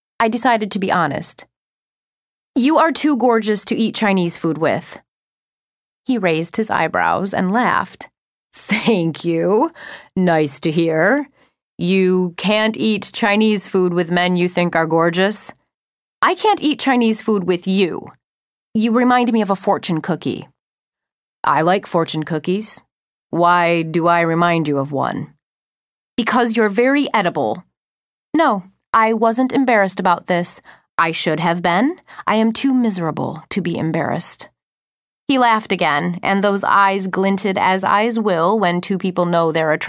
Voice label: Male Female Romantic Comedy; If You Could See